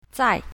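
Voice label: "zai4", Chinese pinyin, south, female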